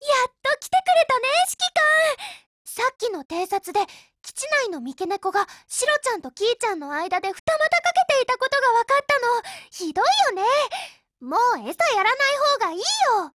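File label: SMG HELLO Japanese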